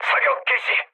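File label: Korean attack position mood low; War Thunder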